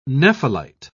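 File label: "nephelite", British English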